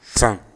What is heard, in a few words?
"san", Bambara